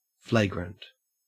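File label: "flagrant", Australian English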